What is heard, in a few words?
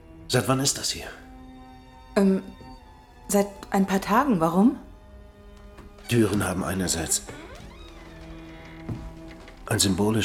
dubbing, german, male